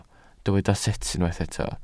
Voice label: "sut", Welsh